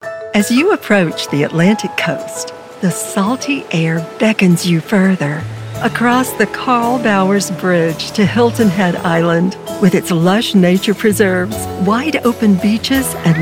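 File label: English US, commercial; GoLocalise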